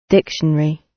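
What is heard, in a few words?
"dictionary", British English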